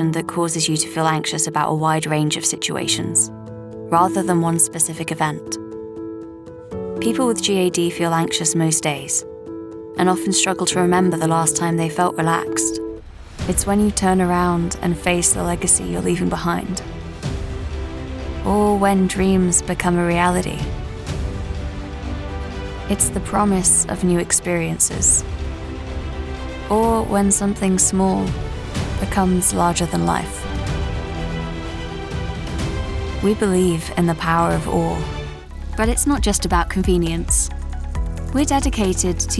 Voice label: Corporate